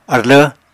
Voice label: "arlv", Apatani